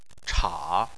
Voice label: "cha3", chinese